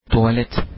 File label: toilet, turkish